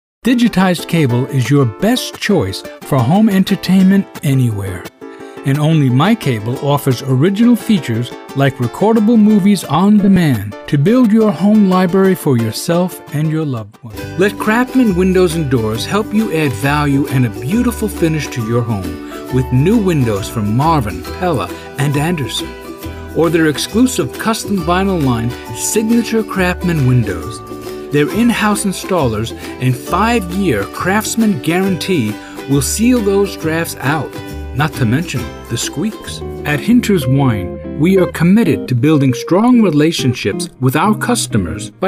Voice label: Radio or TV Retail